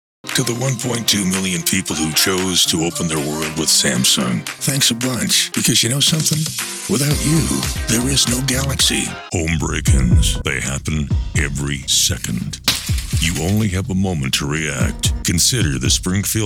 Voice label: Commercial